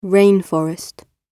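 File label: "rainforest", British English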